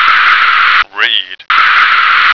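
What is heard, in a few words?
"read", American English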